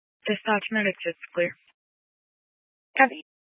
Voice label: Fire Dispatch, scanner

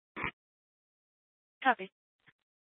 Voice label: Fire Department Dispatch, scanner; Grand Junction

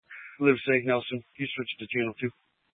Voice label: Police Department Dispatch, scanner

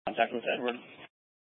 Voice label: Mesa County Sheriff Dispatch; scanner

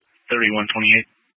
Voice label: Police Department Dispatch, scanner

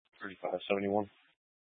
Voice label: Police Department Dispatch, scanner

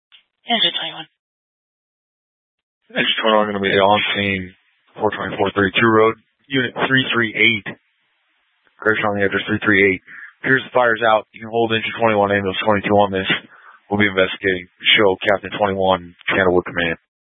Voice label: Incident Tac 1, Scanner audio recording

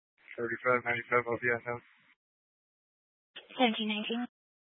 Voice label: Police Dispatch, scanner; Police 1 Dispatch

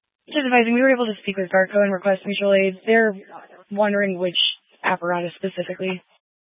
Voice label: Fire Dispatch, scanner

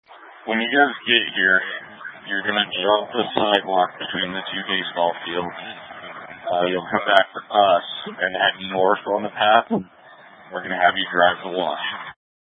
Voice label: Incident Tac 1, scanner

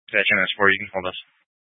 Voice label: Fire Department Dispatch, scanner; Grand Junction

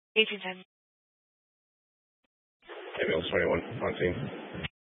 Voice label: Fire Dispatch, scanner

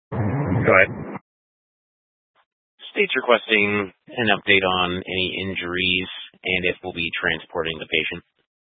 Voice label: Fire Dispatch, scanner audio

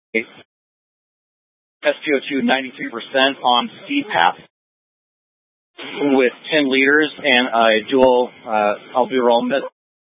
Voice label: Incident Tac 3, Scanner audio recording

